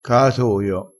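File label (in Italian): "caatoio", vocabolario dialettale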